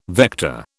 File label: "vector", British